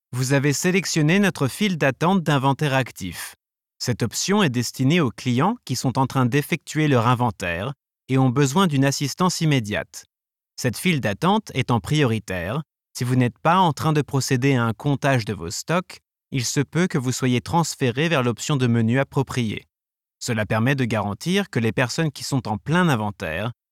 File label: ivr, voix